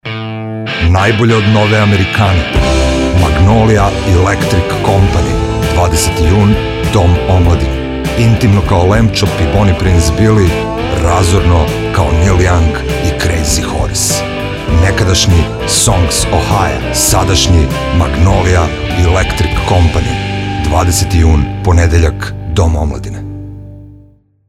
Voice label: Radio commercial